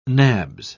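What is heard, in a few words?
"nabs", British English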